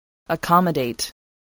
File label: "accommodate", English voice